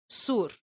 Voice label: "sur", esperanto

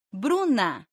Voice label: "bruna", esperanto

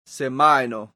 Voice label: "semajno", esperanto

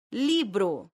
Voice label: "libro", esperanto

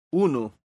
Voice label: "unu", esperanto

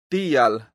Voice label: "tial", esperanto; leciono1

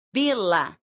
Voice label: "bela", esperanto

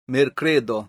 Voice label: "merkredo", esperanto

